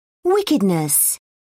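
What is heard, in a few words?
"wickedness", British English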